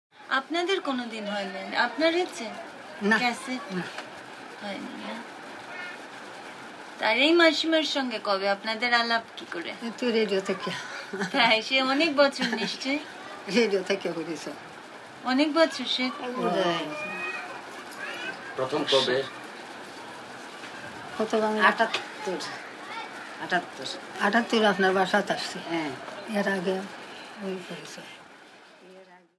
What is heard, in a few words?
Conversation